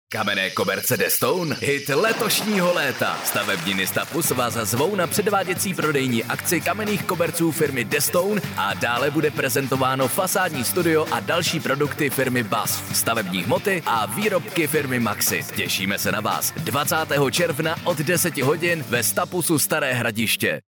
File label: male czech voice over sales